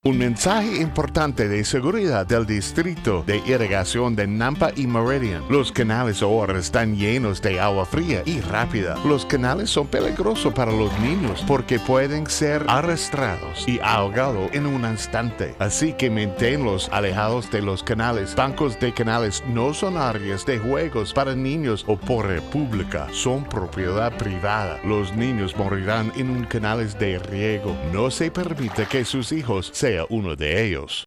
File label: Spanish